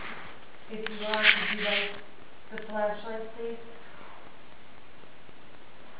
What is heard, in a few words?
piano room whispered